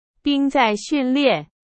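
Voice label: Chinese; hsk4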